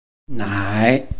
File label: "nai3", Chinese